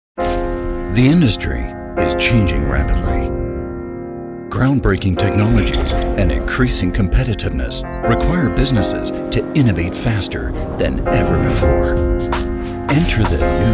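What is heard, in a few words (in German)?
American English Dynamisch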